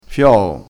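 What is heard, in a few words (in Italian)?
"fioo", dialettale crespinese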